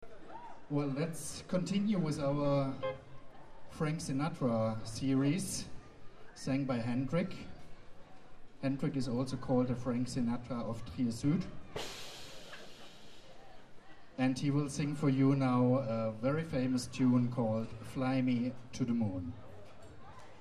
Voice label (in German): Ansage